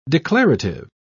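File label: "declarative", British English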